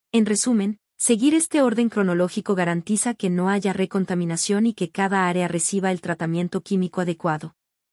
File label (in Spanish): locucion